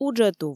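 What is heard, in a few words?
"уджӧтув" Komi, female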